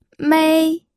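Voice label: "mei", first tone, pinyin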